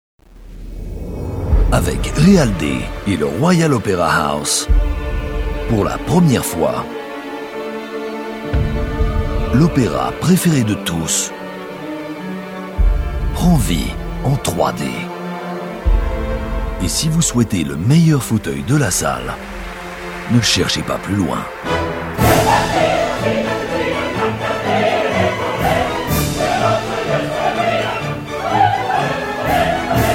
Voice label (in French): bande annonce; Carmen en 3D